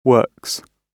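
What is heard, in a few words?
"works", British English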